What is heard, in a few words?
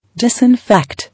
"Disinfect", English